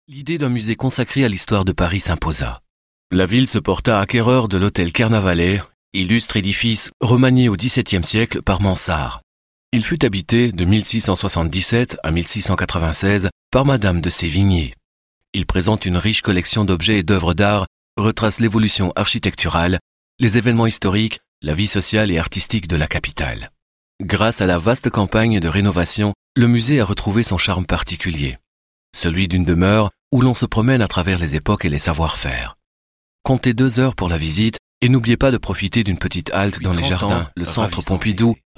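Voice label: French eLearning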